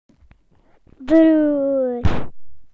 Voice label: "brother", BE; PictureDictionary